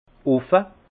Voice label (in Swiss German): "offe", Alsace; vin; ouvert